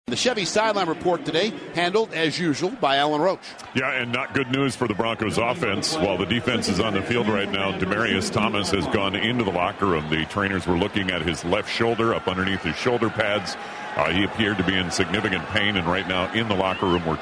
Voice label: radio sideline report